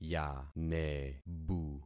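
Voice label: "yanebu", concatenated syllables; Affixing experiment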